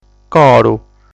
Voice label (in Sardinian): "Coru", sardu